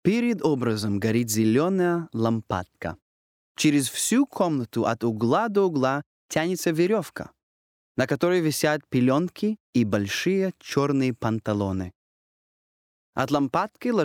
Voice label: Russian Narrative